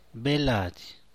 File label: "bel laady", San Mateo Macuilxochitl Zapotec; piel